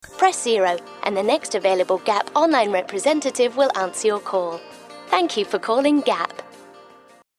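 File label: English Australian, Phone Line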